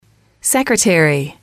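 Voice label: "secretary", English, female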